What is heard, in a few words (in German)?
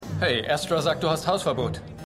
Wachmann, Stimmproben